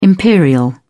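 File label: "imperial", British English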